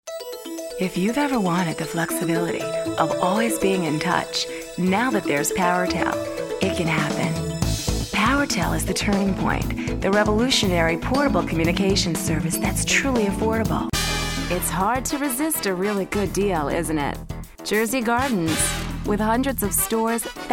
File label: Commercial